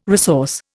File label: "resource", Indian